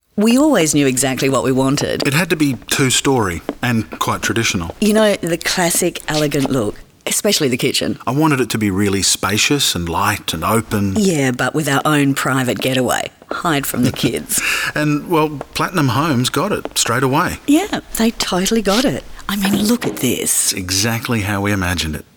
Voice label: Radio Ad; Platinum Homes